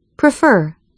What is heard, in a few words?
"prefer", American English pronunciation